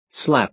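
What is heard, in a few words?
"slap", American English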